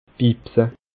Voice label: "bibse", Bas Rhin; boire

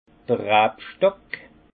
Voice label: "rabstock", alsace; vin; cep de vigne

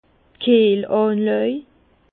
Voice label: "kiehlanlaj", Bas Rhin; vin; rafraîchissoir

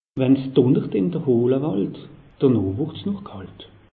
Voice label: "dunnert", alsace; meteo

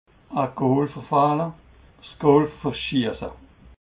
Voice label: "gool verfehle", alsace

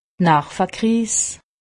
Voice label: "narvekriis", alsace; medecine

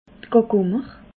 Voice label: "gagummer", Alsace

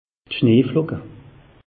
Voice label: "schnee flocke", alsace; meteo

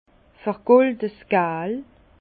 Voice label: "vergoldesgal", Bas Rhin; jaune doré; vin

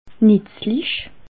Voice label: "netzlich", alsace

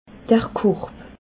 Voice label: "korb", alsace

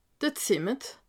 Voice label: "zimmet", alsace

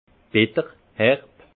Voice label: "bitter", alsace; vin; acerbe

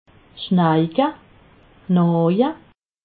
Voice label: "schneike", alsace

